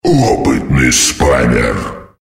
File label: "wicked sick", female